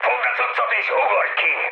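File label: Hungarian bailout mood high, speech; War Thunder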